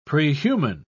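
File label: "prehuman", English, British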